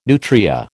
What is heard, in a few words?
"nutria", American